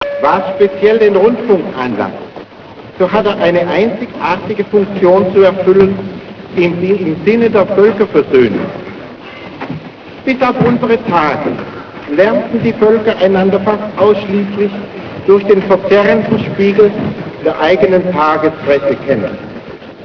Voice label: Einstein voice